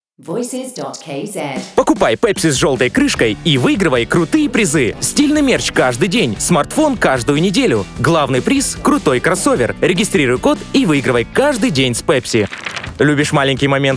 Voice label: rus

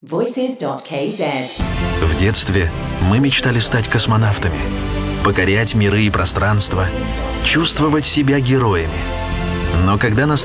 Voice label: ru

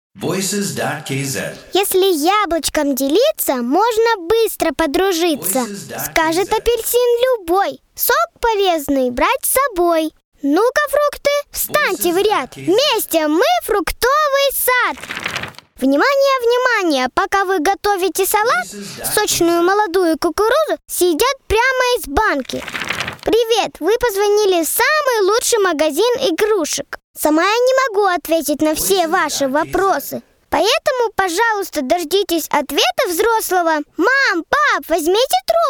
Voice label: ru kid

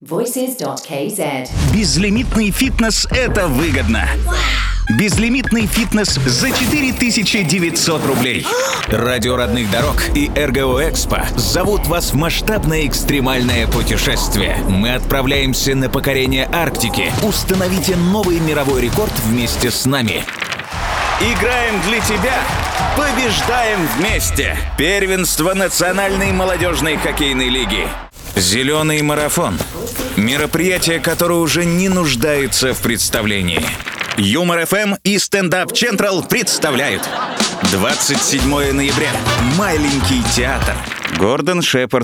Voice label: ru

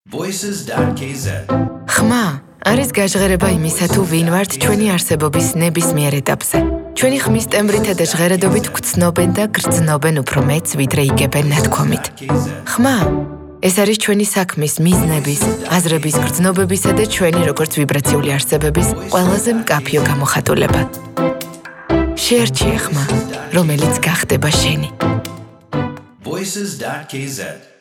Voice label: woman

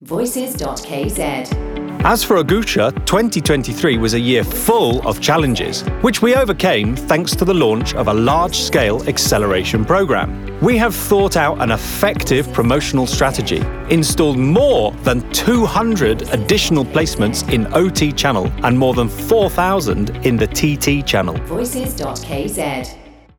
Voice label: en

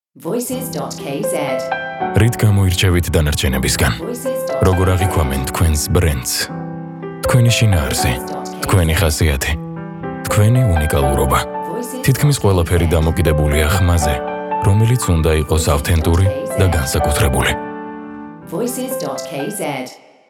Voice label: man

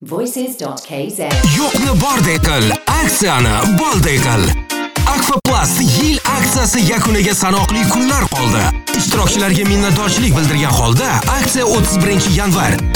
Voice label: uz

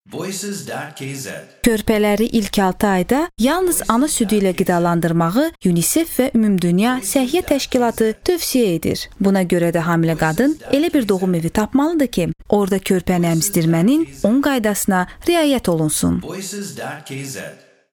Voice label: Voice aze

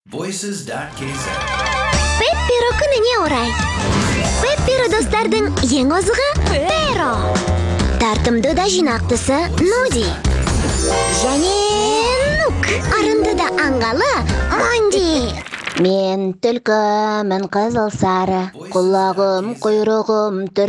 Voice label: parody

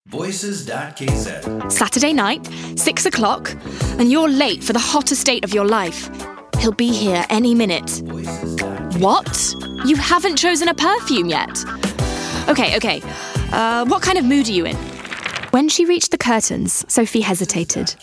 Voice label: en

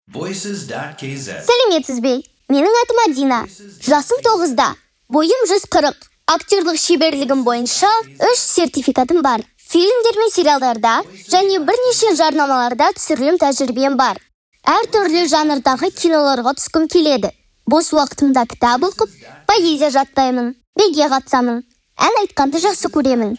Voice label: kid kz

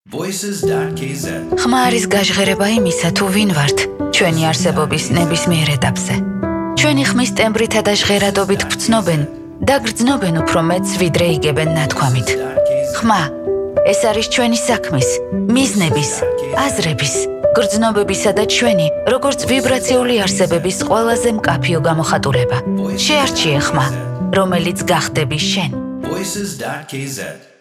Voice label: woman

